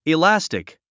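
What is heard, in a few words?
"elastic", English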